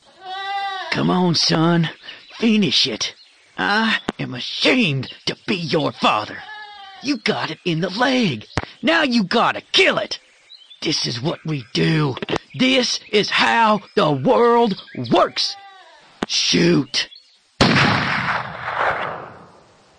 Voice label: voicemails; cycle of death